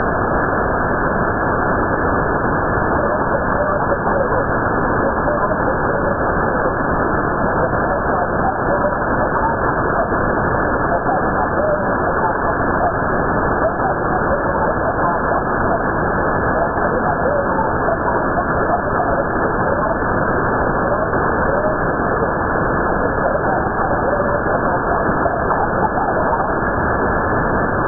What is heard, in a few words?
10GHz EME SSB CQ